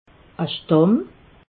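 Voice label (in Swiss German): "stamm", Haut Rhin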